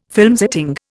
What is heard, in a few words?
"filmsetting", Indian